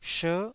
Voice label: "sher9", taiwanese Syllables